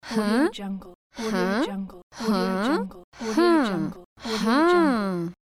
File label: girl huh pack